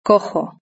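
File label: "cojo" Spanish; verb-conjugator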